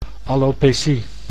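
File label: "alopecie", Dutch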